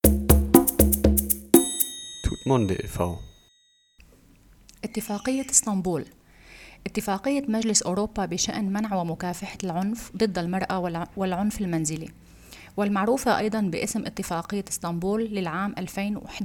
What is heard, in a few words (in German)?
istanbul konvention arabisch